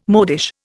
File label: "modish", Indian